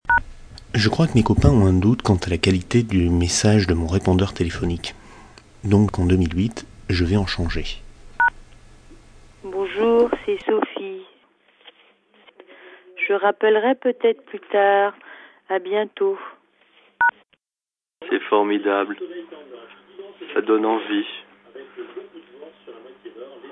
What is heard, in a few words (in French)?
mon repondeur